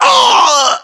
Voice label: death, voiceovers english